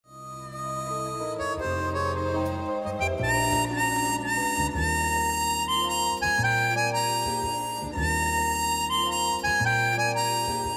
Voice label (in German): Raerener Mundart; Rückblick auf die Karnevalsgeschichte